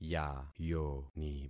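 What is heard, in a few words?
"yayoni", concatenated syllables; Affixing experiment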